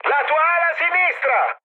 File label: left wing mood high, speech it; War Thunder